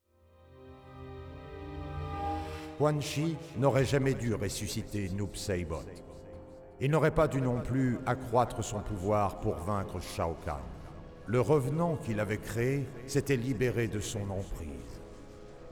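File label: music voice over endings French; noob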